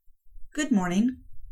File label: eng greetings